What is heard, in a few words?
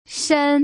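"shen", Mandarin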